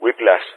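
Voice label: "Whiplash", Greek; Callsign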